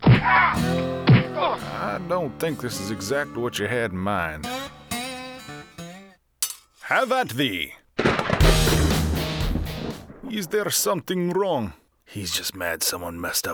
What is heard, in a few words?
character demo